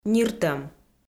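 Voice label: "ниртӧм", Komi-Zyrian, female; kpv audio dict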